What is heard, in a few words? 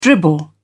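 "dribble", British English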